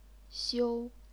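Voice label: "xiu", chinese pinyin, first tone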